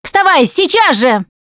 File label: revive friend loud, Russian; Producer